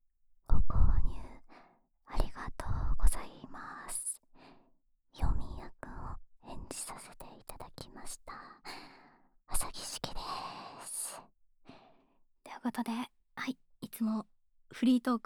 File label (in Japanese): フリートーク; クールで孤独なネクロマンサーの絶対服従搾精管理